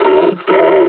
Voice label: pain; combine charger; Half Life Alyx